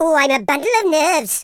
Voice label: oh dear, Welsh; speech